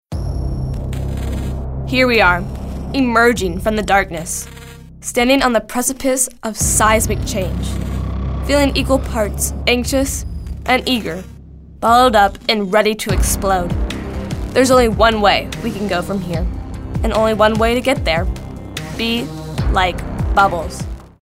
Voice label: confident